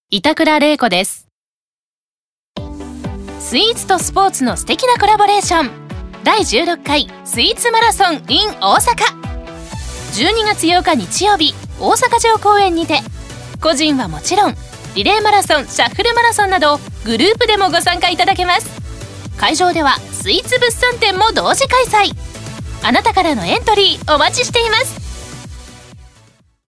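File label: radio commercial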